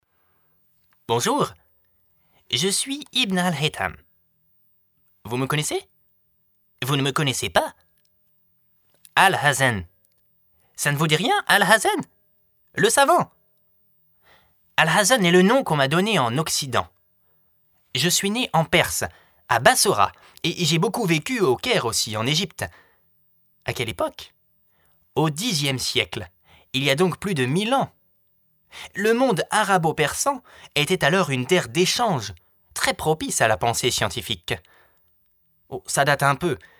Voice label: Doublage de personnage accueillant pour livre audio Ton ludique

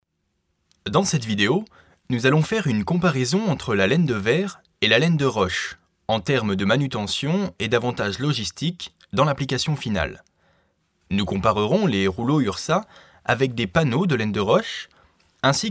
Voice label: Voix posée Ton neutre Débit clair

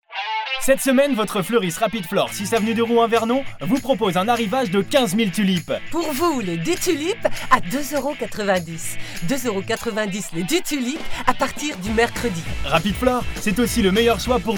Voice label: Voix energique en duo Débit rapide

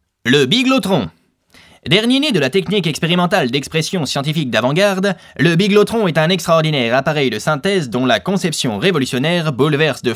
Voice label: Personnage pédant Voix nasillarde Ton explicatif 2nd degré

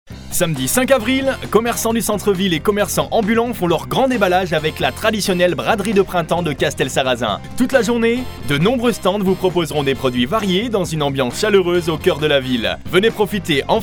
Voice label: Voix pleine et chaleureuse Ton souriant Débit dynamique

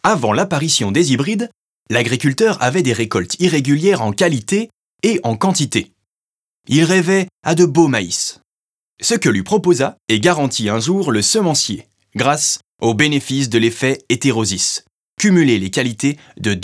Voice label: INSTITUTIONNEL

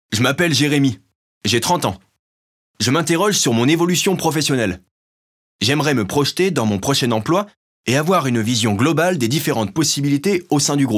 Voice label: INSTITUTIONNEL Medley

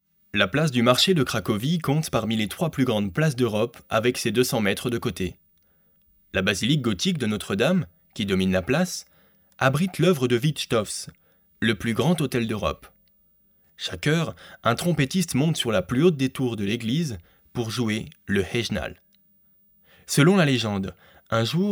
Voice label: Ton droit éveillant l'intérêt de l'auditeur Guide audio touristique

